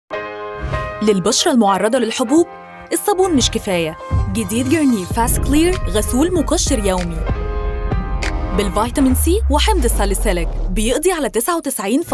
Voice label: TVC Commercial; Garnier

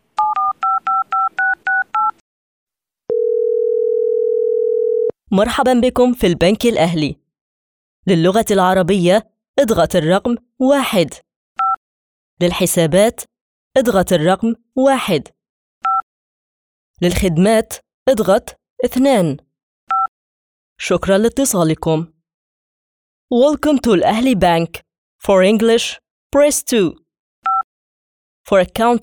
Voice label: IVR, Arabic

